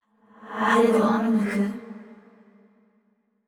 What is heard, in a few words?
surprised; yamiko